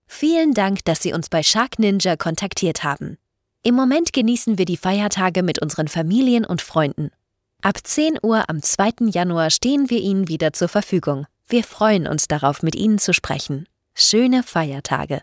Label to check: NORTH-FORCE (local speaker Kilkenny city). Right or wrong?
Wrong